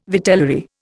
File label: "vitellary", Indian